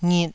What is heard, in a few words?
"ngit2", taiwanese syllables